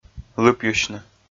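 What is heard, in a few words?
"лыпйысьны" Komi, male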